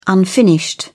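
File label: "unfinished", English, uk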